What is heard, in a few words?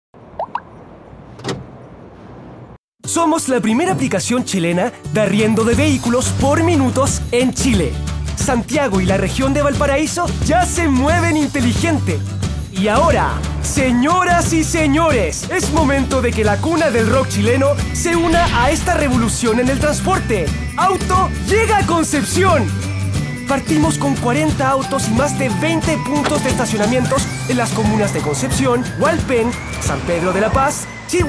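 Latin American Spanish Commercial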